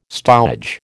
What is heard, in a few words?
"stowage", American